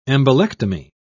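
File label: "embolectomy", British English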